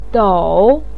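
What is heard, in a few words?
"dou", Mandarin pinyin, tone 3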